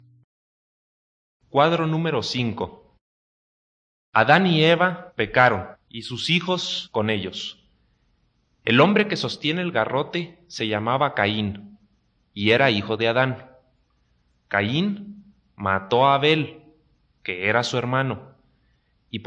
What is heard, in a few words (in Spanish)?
good news spanish mexico